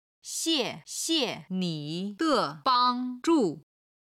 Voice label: "xie4xie5ni3de5bang1zhu4", chinese